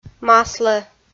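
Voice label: "маслӧ", Komi, female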